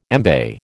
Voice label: "embay", American

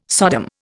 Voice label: "Sodom", Indian

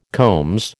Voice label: "combs", American

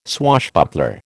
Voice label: "swashbuckler", American

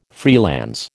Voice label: "freelance", American

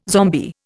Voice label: "zombie", Indian

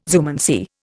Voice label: "zoomancy", Indian

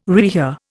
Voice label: "rehear", Indian

